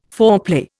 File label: "foreplay", Indian